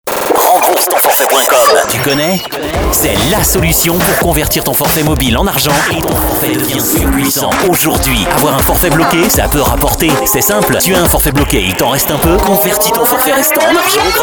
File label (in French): Voix Hom Jeune